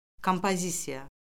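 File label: "kompozisiya", Azerbaijani; Orfoqrafiya Lüğəti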